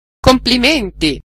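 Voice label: congratulation, Scottish Gaelic; gcompris-data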